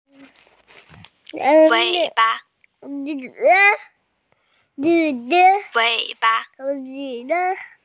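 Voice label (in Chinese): "wei3 ba5" Mandarin Chinese, female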